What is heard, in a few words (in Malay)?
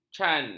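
"can", Malay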